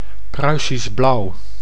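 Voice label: "Pruisisch blauw", Dutch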